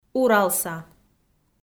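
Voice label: "уралса", Komi, female; kpv audio dict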